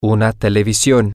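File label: Spanish, television, male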